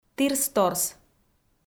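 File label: "тірс-торс", Komi, female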